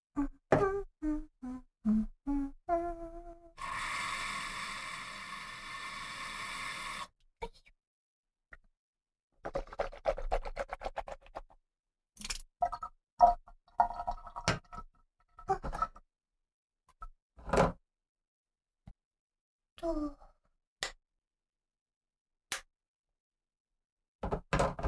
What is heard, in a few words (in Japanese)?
はみがきボイス, ASMR